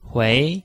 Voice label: "hui2", chinois

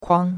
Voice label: "kuang1", chinois; Cours-de-Chinois

